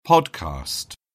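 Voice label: "podcast", British English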